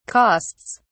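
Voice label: "costs", British English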